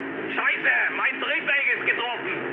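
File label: German damaged engine mood low, speech; War Thunder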